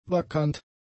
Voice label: "vakant", German; adjectives